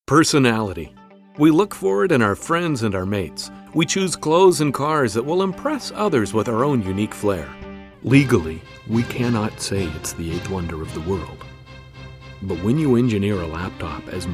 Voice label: Commercial